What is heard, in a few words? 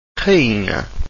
"rainha", Portuguese; diphthongs